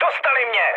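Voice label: aircraft destroyed, Czech, mood high; speech; War Thunder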